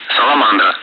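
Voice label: Speech, Russian, air traffic control; Callsign; Salamander; DCS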